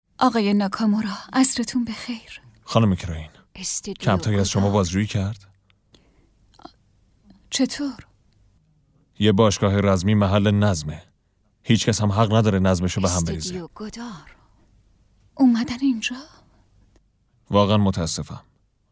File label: dub